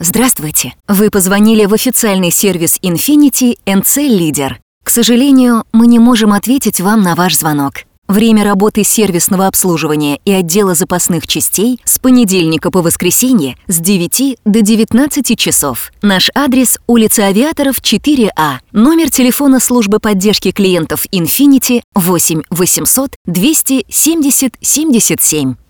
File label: IVR